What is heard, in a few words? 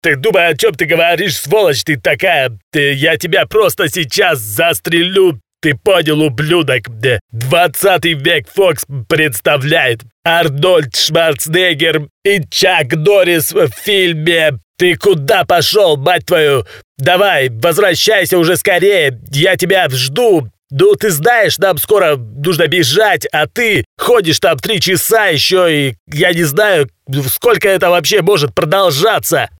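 perevodchik filmov, film translator voice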